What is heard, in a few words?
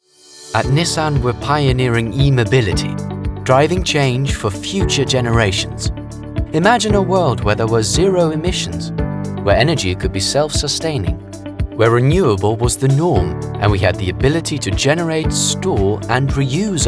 corporate uk